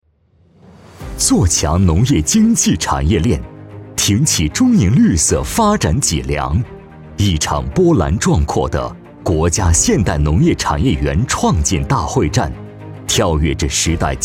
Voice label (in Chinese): 男 政府 大气 流畅稍高昂; 中宁国家产业园